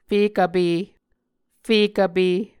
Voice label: "fekvpe", muscogee